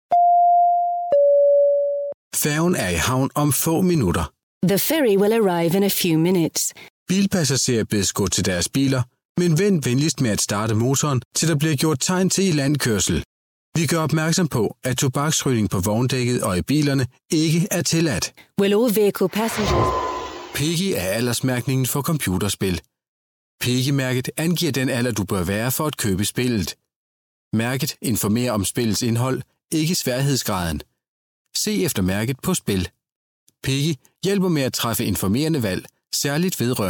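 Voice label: danish male ivr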